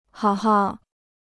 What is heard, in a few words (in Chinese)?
"好好", Chinese